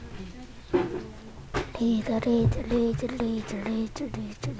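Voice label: "lead", spoken word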